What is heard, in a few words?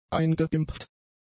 "eingeimpft", German; adjectives